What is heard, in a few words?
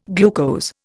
"glucose", Indian